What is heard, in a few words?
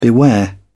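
"beware", British English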